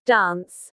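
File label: "dance", English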